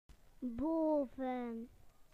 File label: "above", BE; PictureDictionary